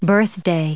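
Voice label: "birthday", English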